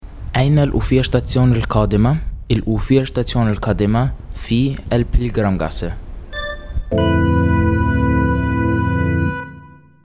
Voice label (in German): Hoerbeispiel Arabisch